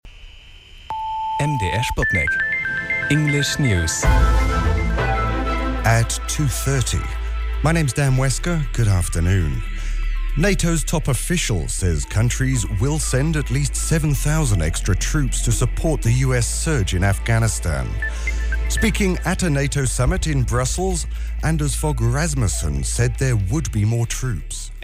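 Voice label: straight voice, news; News Edit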